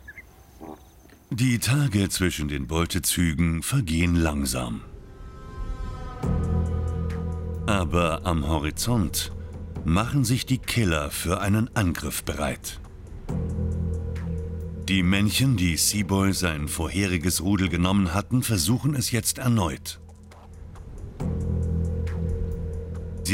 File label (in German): Doku; Der Clan der Loewen